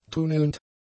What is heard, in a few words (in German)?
"tunnelnd", German; adjectives